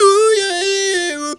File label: "OUH YEAH", funky vocals; USB Soundscan vol.18; AKAI; TB MELODY